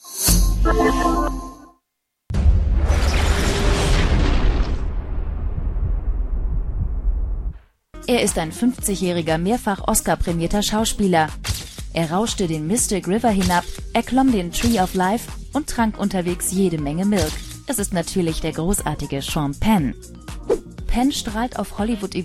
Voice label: movie news